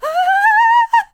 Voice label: Enemy Generic Human Spawn Disbelief

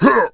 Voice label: Man voice

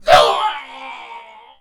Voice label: Male Die Robot; Mars Sortie

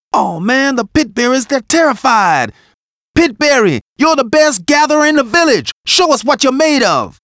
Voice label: Bonus uk; arthur and the revenge of maltazard; Wii

